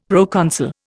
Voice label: "proconsul", Indian; Dictionary